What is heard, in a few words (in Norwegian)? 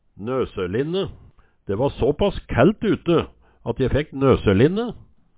"nøsølinne", Numedalsmål